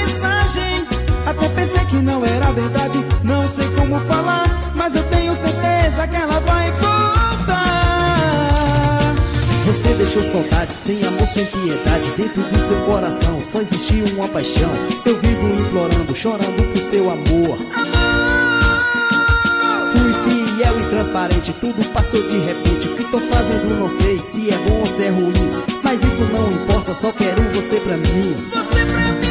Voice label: toca locutor

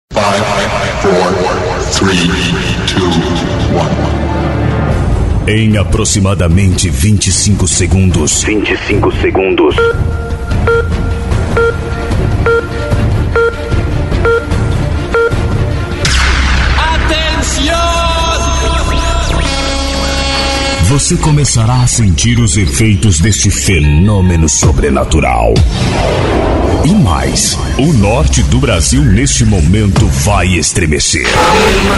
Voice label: vos de impacto para vinhetas de djs; studio l2

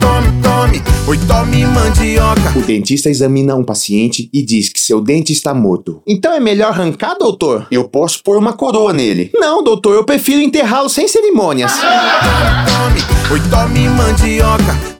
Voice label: spot piada; rei da mandioca; riso do radio

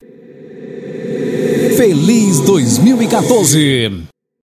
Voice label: vinheta feliz voz

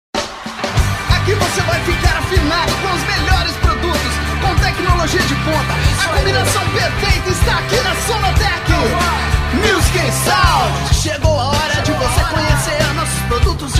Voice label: jingles loja de musica instrumentos musicais

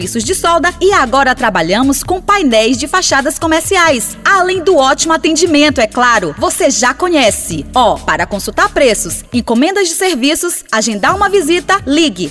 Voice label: spot serralheria; mendes

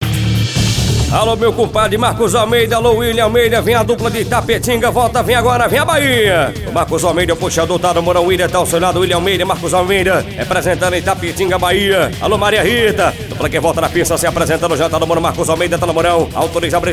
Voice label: locutor

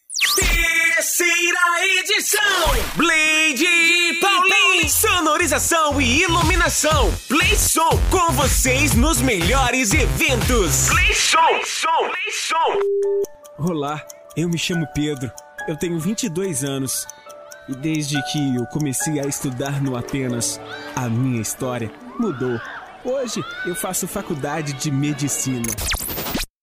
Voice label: demo locucao brasil

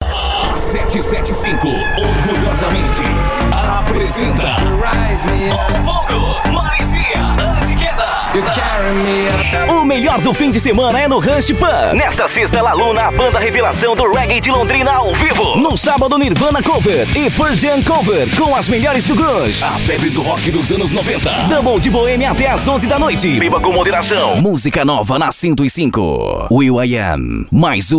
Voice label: locucao brasil

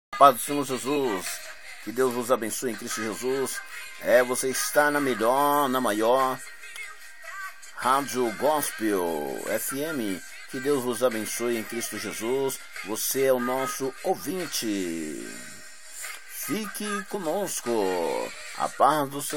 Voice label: vinheta para radio

